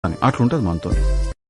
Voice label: dialogue telugu